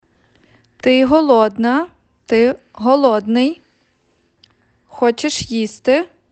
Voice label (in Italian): Ucraino